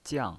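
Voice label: "jiang", fourth tone, pinyin voice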